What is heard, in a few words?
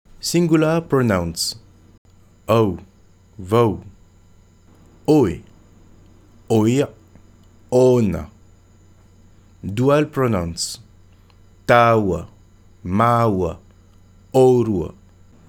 singular pronouns, reotahiti; tahitian